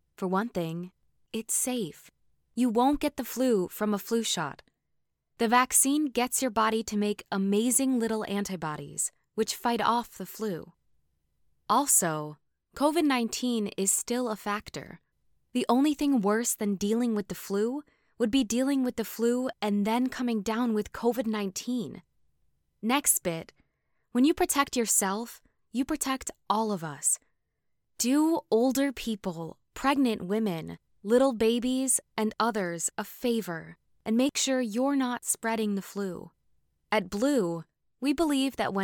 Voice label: Health Insurance Spot

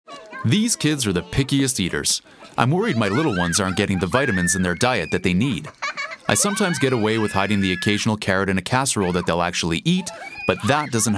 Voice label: Radio

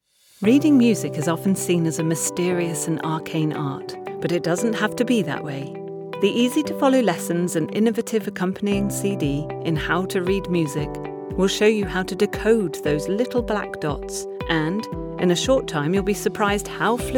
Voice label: eLearning

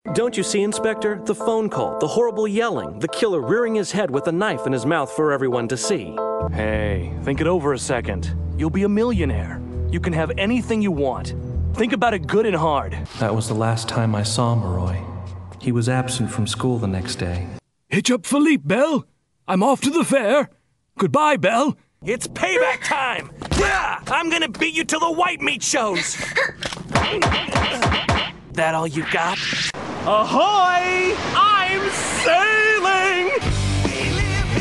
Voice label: Animation

